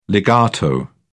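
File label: "legato", British English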